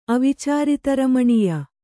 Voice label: "ಅವಿಚಾರಿತರಮಣೀಯ", Kannada